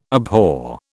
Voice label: "abhor", British